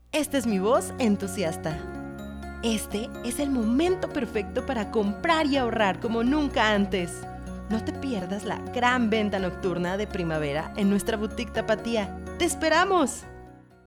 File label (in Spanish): Dinamica, locutora